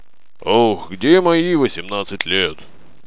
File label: monologue; svencoop; paranoia; officer